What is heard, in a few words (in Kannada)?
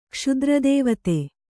"ಕ್ಷುದ್ರದೇವತೆ", Kannada